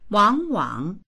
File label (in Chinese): "wang3wang3", voices; 往往; 常に、いつも